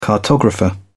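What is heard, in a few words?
"cartographer", English, British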